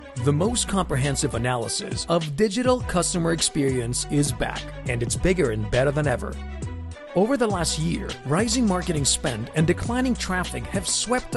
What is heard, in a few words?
Commercial and Corporate Reel